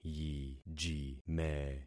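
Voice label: "yijime", concatenated syllables; Affixing experiment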